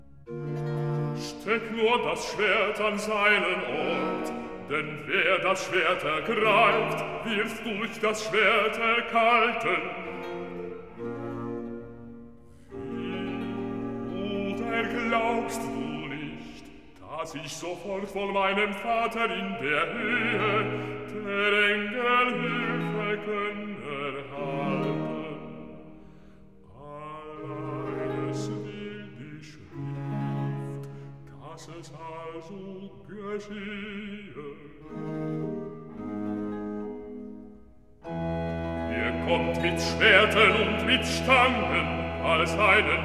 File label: Recitativo Jesus; Handel Masterworks